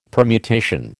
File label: "permutation", American